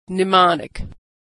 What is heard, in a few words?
"mnemonic", pronunciation